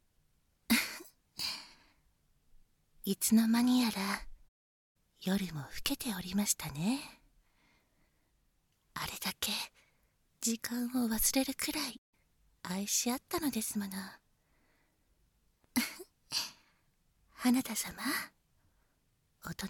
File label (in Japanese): 添い寝(バイノーラルマイク 効果音あり大きめ)